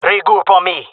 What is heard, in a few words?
request regroup, jungle male; vo; agents; Source Model Packs; Counter Strike Model Pack; CS2